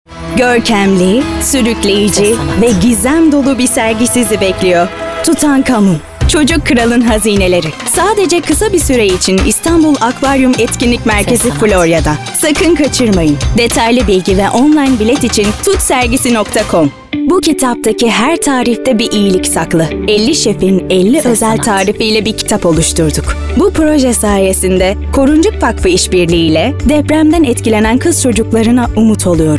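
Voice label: Turkish, seslendirme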